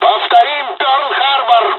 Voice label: dialog, dialogs russian; War Thunder